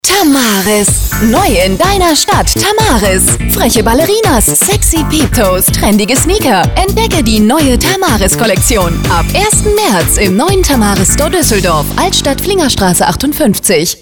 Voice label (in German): werbung; tamaris duesseldorf